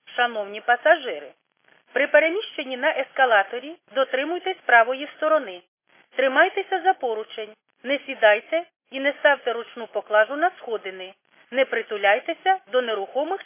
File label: khs st female, subway station